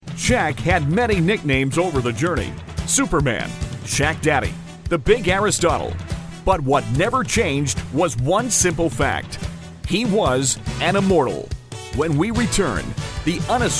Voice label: In Show Narration